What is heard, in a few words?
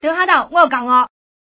"gang", woman; guizhou